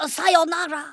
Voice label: "oh dear", Kamikaze; Worms Armageddon

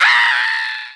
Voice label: "nooo", Kamikaze; Worms Armageddon

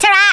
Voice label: "BYEBYE", Australian; Worms Armageddon

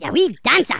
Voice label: "EXCELLENT", Angry Scots; Worms Armageddon

